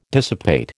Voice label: "dissipate", American